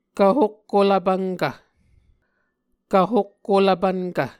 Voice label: "kvhokkolv pvnkv", Muscogee

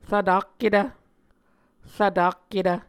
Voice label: "rvtakketv", Muscogee

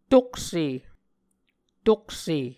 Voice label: "tokse", muscogee

